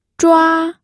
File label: "zhua1", pinyin